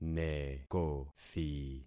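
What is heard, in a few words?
"nekothi", concatenated syllables; Affixing experiment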